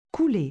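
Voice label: "couler", French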